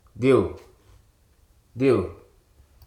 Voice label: "large", Hruso

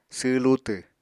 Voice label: "sww lutw", Apatani

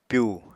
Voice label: "pyu", apatani

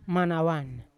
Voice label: "manawan", Yawa; delicious sweet; unat

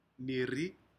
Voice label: "niri", Aneityum; shellfish

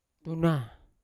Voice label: "tuna" to sit down, Yawa

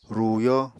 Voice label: "ruyó", apatani

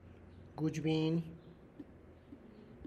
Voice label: damp soil, Tlacochahuaya Zapotec; gutx biny; (Spanish) suelo húmedo

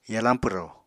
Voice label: "yalañ pwro", Apatani

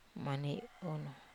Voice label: "maniai unu", Wamesa

